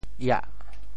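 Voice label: "iah4", Teochew; yì; 白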